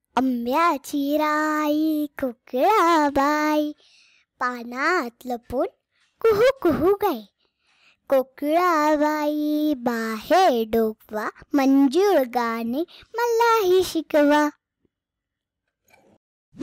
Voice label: marathi poem